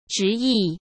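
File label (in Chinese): "执意" Mandarin Chinese; chinese-flashcards; hsk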